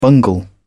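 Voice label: "bungle", British English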